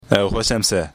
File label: uyghur ehxoshemise alright goodbye then